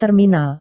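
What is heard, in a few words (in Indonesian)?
"terminal", Indonesian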